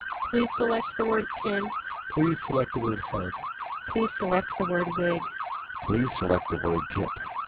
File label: Opus NB 5900 siren; Codec